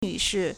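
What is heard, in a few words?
"nv3shi4", Mandarin Chinese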